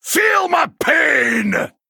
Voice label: Male Dwarf Attack